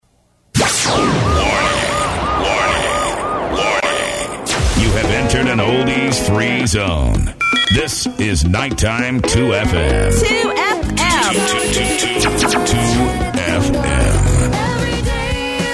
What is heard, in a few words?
Sweepers; 2FM